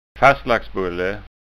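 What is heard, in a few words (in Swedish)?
"fastlagsbulle", framtidsskånska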